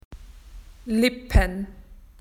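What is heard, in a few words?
"lips", Australian English; PictureDictionary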